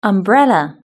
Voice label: "umbrella", british english

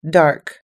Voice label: "dark", us-english

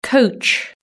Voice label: "coach", British English

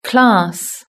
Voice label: "class", british english

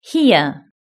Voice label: "hear", British English

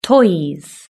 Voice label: "toys", British English

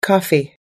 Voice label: "coffee", us-english

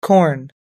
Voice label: "corn", us-english

